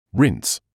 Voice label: "rinse", English